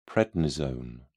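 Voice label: "prednisone", English, British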